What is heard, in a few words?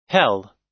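"hell", US English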